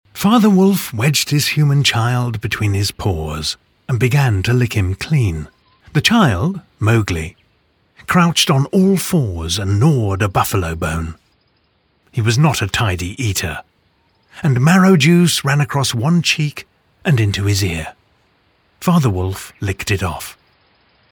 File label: Audiobook